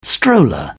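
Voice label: "stroller", British English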